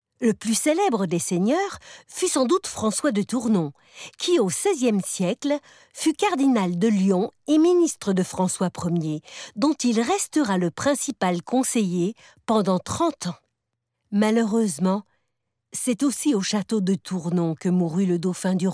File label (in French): narratrice et enfant; TOURNON et François 1er